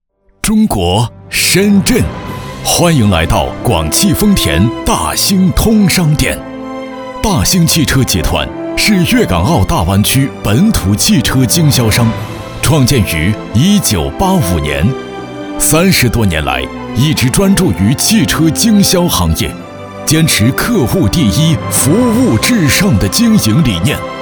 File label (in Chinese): 男 宣传片 汽车店; 大兴汽车店